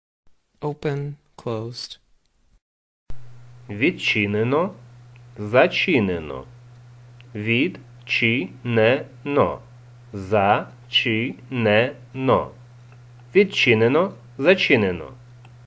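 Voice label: Open closed, Ukrainian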